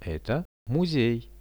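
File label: "this is a museum", Russian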